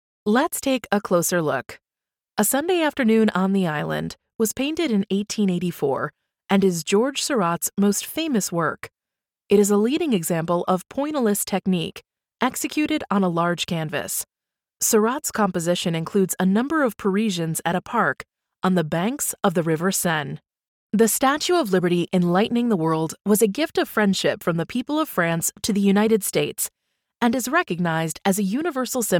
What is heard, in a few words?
Museum Tourism Narration